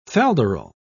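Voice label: "falderal", British English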